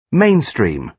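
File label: "mainstream", British English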